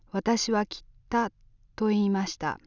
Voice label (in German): "kitte" schneide, japanese accent